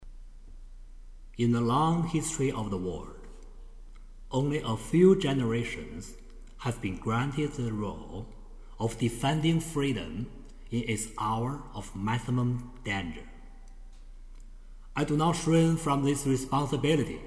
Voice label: eng speech